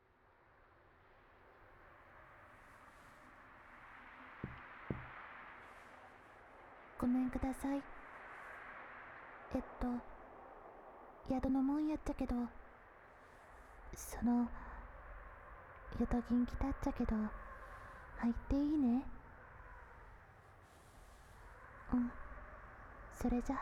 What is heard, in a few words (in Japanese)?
宮崎弁, 木枯らし; 田舎の古宿ン娘の夜伽